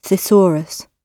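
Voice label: "thesaurus", British English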